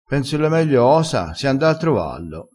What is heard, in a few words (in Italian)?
"e o", vocabolario dialettale